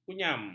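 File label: "kunyam", Malay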